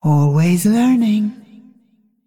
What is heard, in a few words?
Female Dragon TRIGGER TOME OF INTELLECT